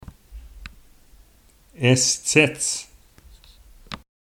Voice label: "Im Eating", Tahltan

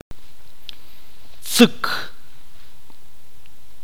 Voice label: "blanco", itza

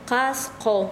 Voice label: Chanka, quechua; pecho

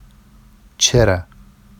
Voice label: “ʧera”, Vafsi; n shit; چِرَ

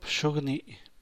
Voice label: "bshug nii", San Mateo Macuilxochitl Zapotec; toenails; uñas de los pies

